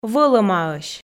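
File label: "вӧлӧмаӧсь", Komi, female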